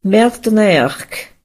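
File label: congratulation, Scottish Gaelic; gcompris-data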